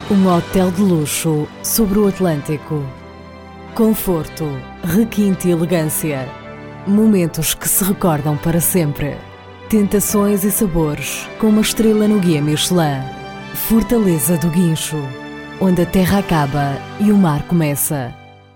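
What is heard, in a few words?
Portuguese commercial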